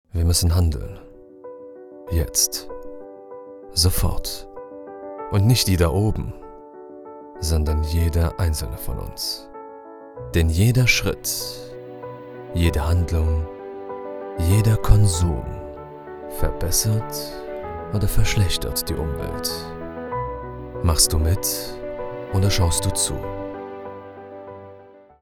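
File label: German, male, Image Film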